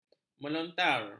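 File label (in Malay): "melontar", Malay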